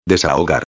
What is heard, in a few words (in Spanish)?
"desahogar", espanhol; palavra do dia